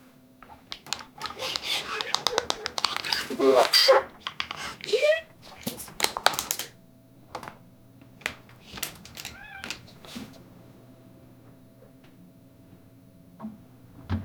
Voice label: teenage boys getting read